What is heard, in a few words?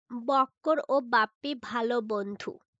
Female